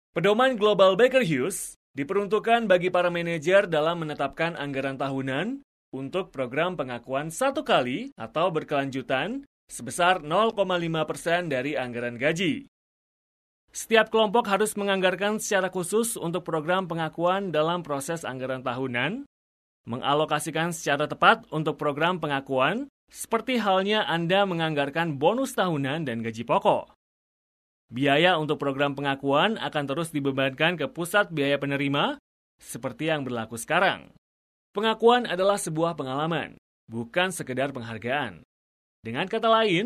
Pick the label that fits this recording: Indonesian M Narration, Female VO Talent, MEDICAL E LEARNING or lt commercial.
Indonesian M Narration